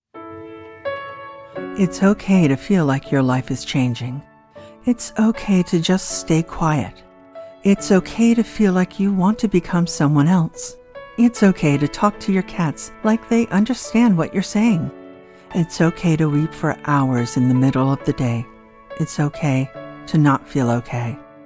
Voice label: PSA Narration; National Alliance On Mental Illness